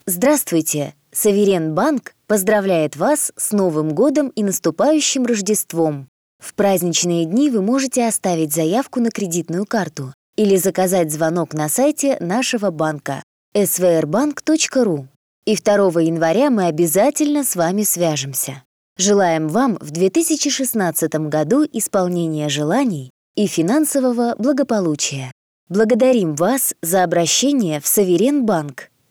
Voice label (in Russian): Голосовое приветствие IVR; Соверен Банк; АВТООТВЕТЧИКИ